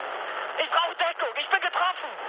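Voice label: German aircraft damaged mood med, speech; War Thunder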